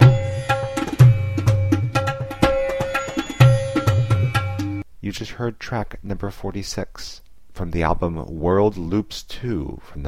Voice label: 125 spoken, World Loops; MagnaLoops